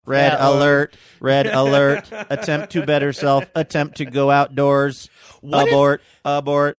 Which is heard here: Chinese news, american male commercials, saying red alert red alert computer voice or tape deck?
saying red alert red alert computer voice